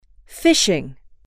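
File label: "fishing", female, english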